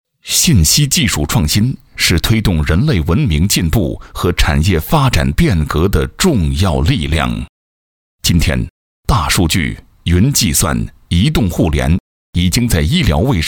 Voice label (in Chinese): 男 国 大音; 电子病历-医院信息化